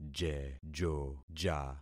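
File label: "jejoja", concatenated syllables; Affixing experiment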